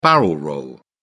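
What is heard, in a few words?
"barrel-roll", British English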